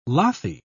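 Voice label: "lathy", British English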